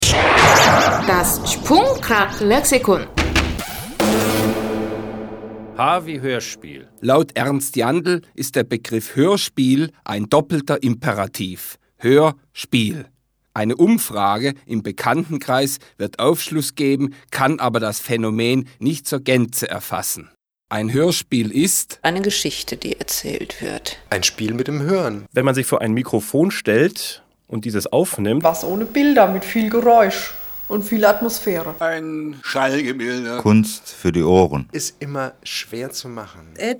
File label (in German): Hoerspiel